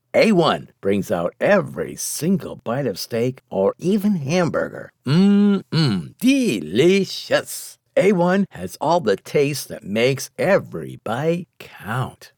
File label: Commercial; A 1 STEAK